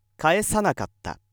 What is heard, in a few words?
male